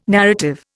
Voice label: "narrative", Indian